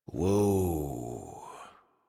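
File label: horse stop walk adult, English; Warframe; Duviri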